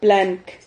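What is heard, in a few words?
"planc", Spanish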